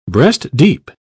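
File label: "breast deep", British English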